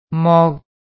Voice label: "mok1", cantonese

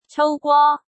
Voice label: "cou3 gwo1", cantonese

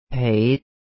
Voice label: "pei5", cantonese

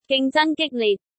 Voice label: "ging6 zang1 gik1 lit6", cantonese

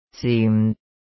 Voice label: "zim6", cantonese

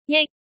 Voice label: "jik1", cantonese

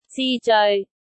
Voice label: "ci3 zeoi6", cantonese

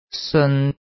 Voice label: "seon3", cantonese; 《上下经卦名次序》

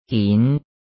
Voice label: "gin2", cantonese

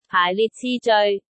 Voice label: "paai4 lit6 ci3 zeoi6", cantonese

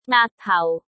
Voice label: "ngaak6 tau4", cantonese

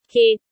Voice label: "kit3", cantonese

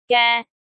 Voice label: "gei3", cantonese

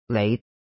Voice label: "lei5", cantonese

